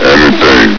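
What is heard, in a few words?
"everything", male; hl2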